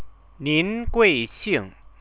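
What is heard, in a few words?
ninguixing, Chinese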